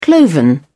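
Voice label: "cloven", British English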